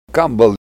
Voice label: flounder, Ninilchik Russian; fish